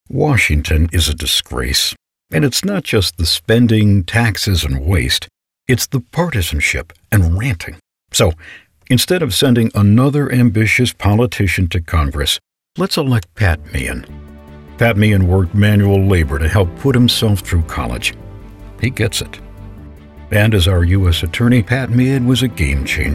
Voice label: Radio; Serve